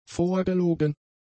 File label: "vorgelogen", German; adjectives